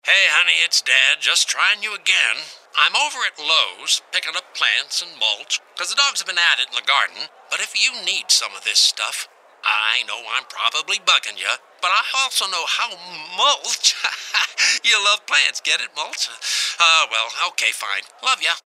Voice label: Dad Call

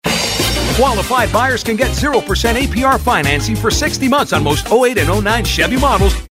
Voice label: Hard Sell

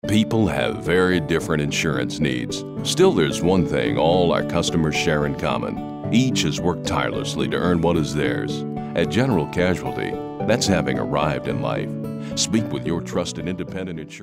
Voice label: folksy

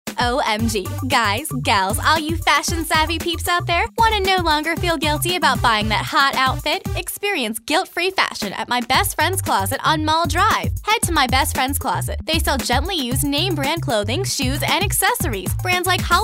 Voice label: girl next door

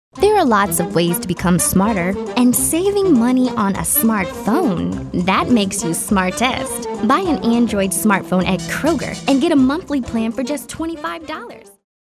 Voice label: upbeat

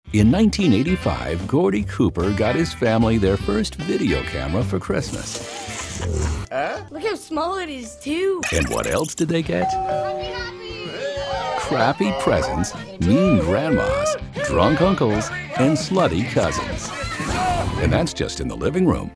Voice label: movie trailer humorous

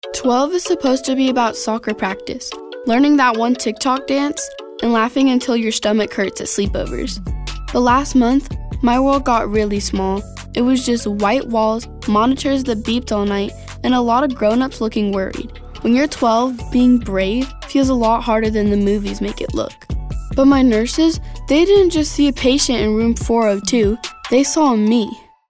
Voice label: Brave, kids

